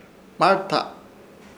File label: "Hmarta", Ishir Chamacoco; ahogar